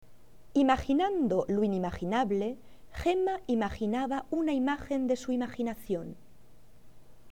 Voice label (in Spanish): "imaginando", fonetica